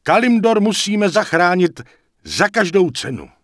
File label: Night Elf Male Pissed; wowpreklad; czwow; NightElfVocalMale